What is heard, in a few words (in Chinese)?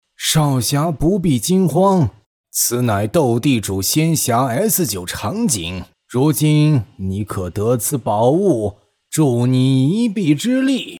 男 角色 老者